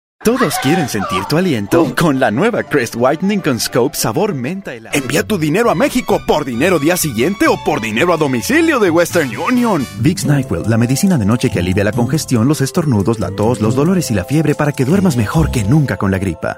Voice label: spanish males